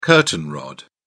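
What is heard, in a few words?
"curtain rod", British English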